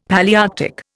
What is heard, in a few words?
"Palaearctic", Indian; Dictionary